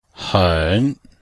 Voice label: "hen3", chinois; Cours-de-Chinois

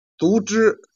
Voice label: "jian", Chinese

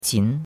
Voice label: "qin2", chinois; Cours de Chinois

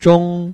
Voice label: "zhong1", Chinese

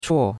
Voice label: "chuo4", chinois; Cours de Chinois

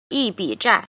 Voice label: "jin", Chinese

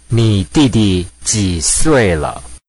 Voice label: Mandarin Conversation

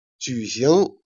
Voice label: "jian", Chinese

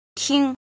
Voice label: "jin", Chinese

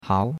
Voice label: "hao2", chinois; Cours-de-Chinois

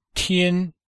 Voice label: "tian1", chinois; Cours-de-Chinois

